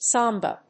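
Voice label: "samba", English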